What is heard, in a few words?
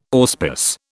"auspice", British